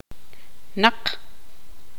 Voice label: tzutujil

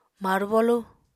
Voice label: "marbolu", Apatani

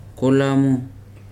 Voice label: "white", Weri

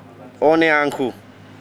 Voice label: "muchacha", saliba

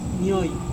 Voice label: "nioi", knife, Serewen

